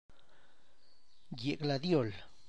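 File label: gladiola, Tlacochahuaya Zapotec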